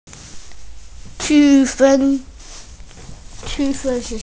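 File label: "qu1fen1", Mandarin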